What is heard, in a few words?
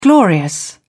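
"glorious", English, British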